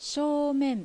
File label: "Shoumen", Japanese; しょうめん; 正面; Front; Glossary of Calligraphy Terms